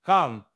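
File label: Voice Acting, Spanish, male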